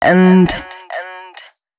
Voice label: female spoken hooks, dance ultra voice; Mutekki Media; Dance Ultra Voice VOL-1